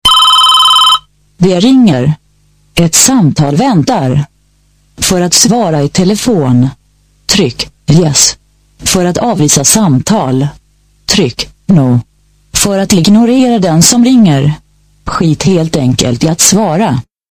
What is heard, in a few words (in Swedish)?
Telefoninstruktion; Ringtones